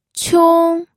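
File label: "qiong", Mandarin Chinese, first tone; pinyin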